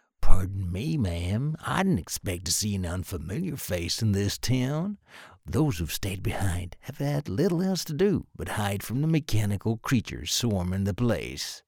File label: english international video games